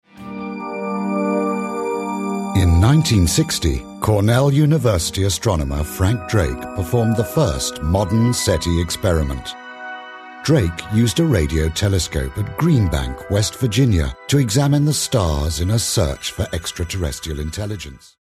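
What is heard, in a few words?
uk documentary, engelsk uk mann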